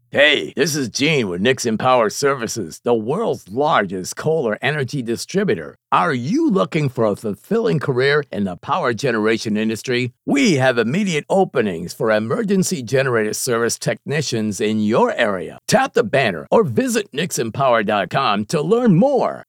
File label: Recruitment Ad